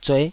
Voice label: "zueh5", taiwanese